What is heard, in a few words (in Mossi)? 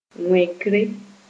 "wẽkre", Moore